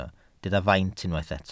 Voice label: "faint", Welsh

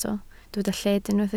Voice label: "lled", Welsh

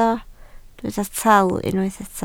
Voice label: "tal", Welsh

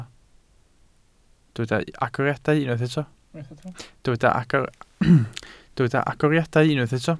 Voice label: "agoriadau", Welsh

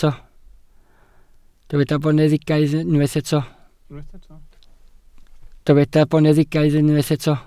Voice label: "boneddigaidd", Welsh

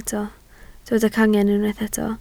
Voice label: "cangen" Welsh

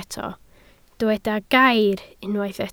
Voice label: "gair" Welsh

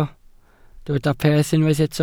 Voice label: "peth" Welsh

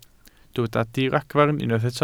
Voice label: "diragfarn" Welsh

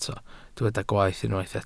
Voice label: "gwaeth", Welsh

